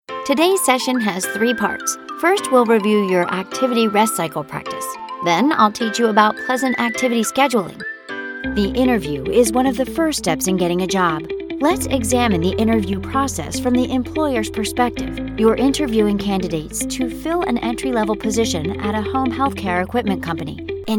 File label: us elearning, kvinne; engelsk-us